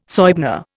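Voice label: "soigné", Indian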